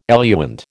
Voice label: "eluant", American

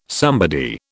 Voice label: "somebody", British

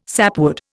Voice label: "sapwood", Indian

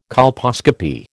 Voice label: "colposcopy", American

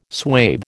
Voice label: "swathe", American

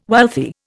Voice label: "wealthy", Indian

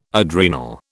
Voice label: "adrenal", British

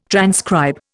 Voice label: "transcribe", Indian

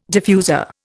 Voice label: "diffuser", Indian

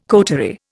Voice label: "coterie", Indian

